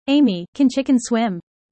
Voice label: user fast